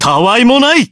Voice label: finish, jp; zeviro